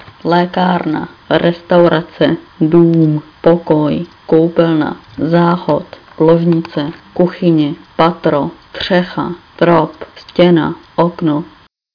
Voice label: czech

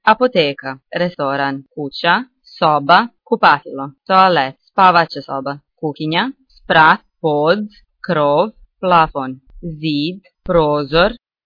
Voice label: croatian places

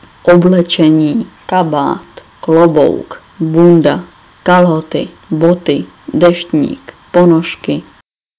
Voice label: czech; clothes

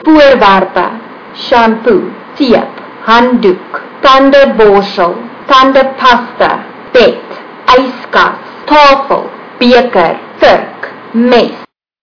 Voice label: afrikaans

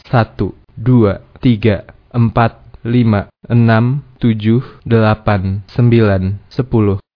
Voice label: indonesian numbers

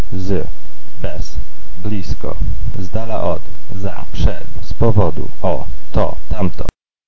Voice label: polish words

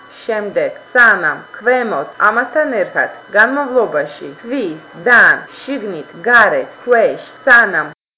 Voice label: georgian; words

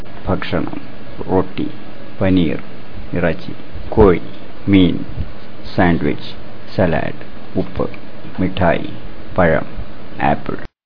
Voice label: malayalam food

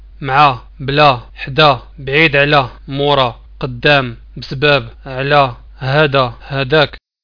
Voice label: moroccan words

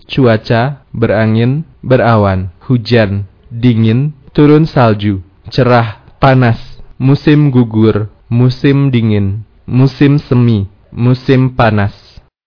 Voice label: indonesian weather

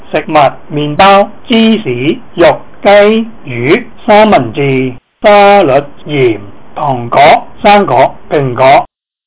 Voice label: cantonese food

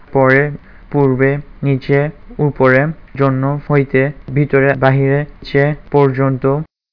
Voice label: bengali; words

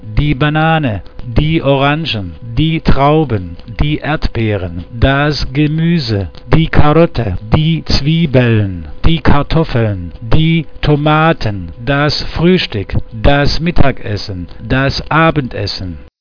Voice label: german; food

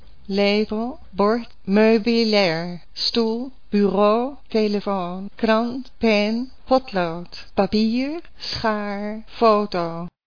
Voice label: dutch

